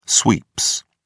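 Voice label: "sweeps", British English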